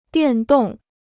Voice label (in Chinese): "电动", Chinese